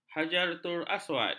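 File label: "hajaratulaswad", Malay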